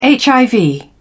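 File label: "hiv", British English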